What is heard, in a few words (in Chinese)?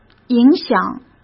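"影响", Mandarin; chinese-flashcards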